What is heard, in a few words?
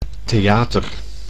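"theater", Dutch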